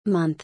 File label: "Month", English